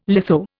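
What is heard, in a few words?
"litho", Indian English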